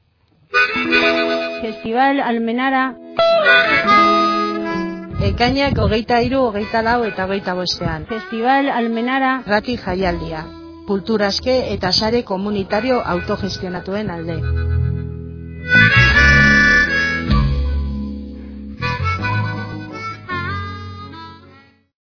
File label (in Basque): promo euskera; xiv festi